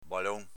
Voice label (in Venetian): "balon", Veneto